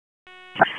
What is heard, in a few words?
FIRE OPS, p25; wake